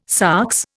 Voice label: "sox", Indian